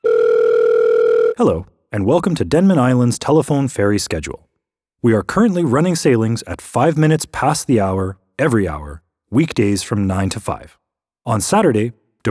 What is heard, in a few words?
Phone Prompt